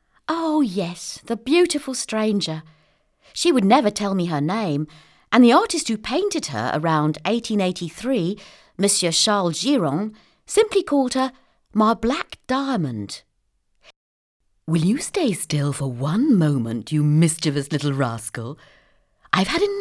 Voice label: Characters Accents